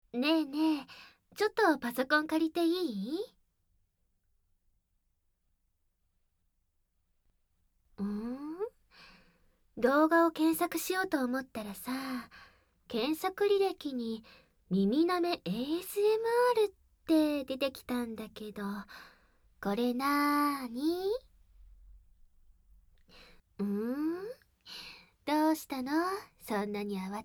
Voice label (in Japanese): お姉ちゃんの耳舐めオナサポ (両耳舐め・SEなし)